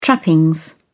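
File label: "trappings", British English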